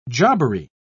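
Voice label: "jobbery", British English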